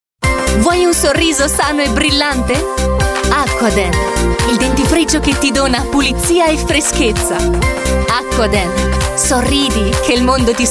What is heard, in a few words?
italian voice over female commercial